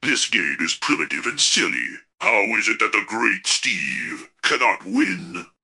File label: upset; fertig classic games